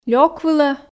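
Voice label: Komi sentences, female; лёк вылӧ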